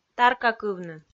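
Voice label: "таркакывны", Komi, female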